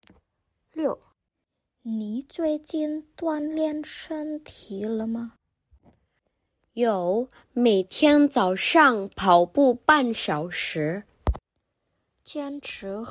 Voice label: Mandarin; mandarin placement test hsk4